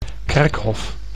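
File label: "kerkhof", Dutch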